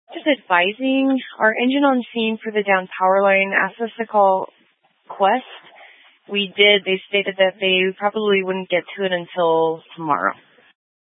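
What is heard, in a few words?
Police Dispatch, Scanner audio recording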